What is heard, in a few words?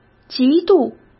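"极度", Mandarin Chinese; chinese flashcards; hsk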